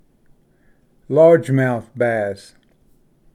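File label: "largemouth bass", English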